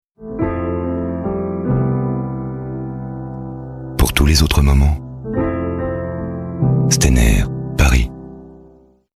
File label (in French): signature grave sensuel elegant